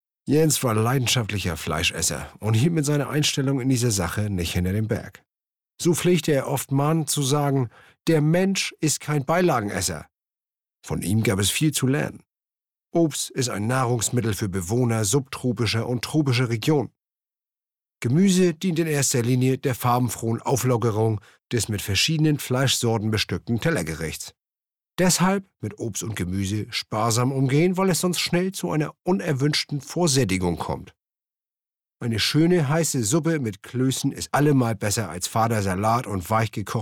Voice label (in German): erzaehlung